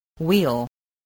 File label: "wheel", spelling